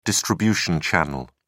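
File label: "distribution channel", British English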